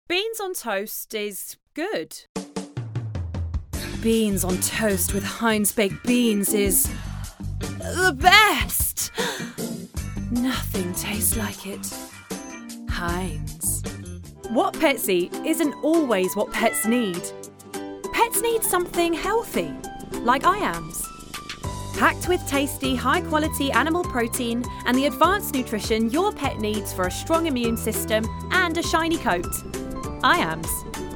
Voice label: English, F, Commercial; advertising voice over